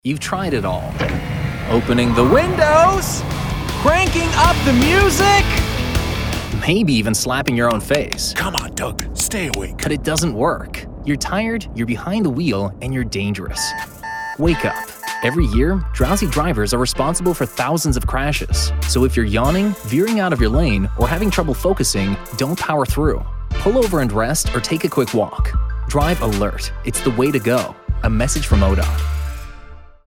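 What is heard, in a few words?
Radio public service announcement; Drowsy Driving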